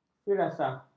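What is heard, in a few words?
"firasah", Malay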